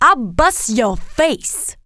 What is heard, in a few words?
cuss, female, profanity; kingpin